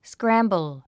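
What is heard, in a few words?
"scramble", English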